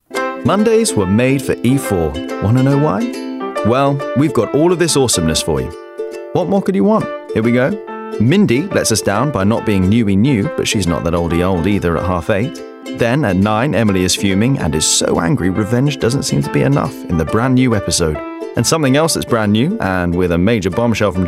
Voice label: Promos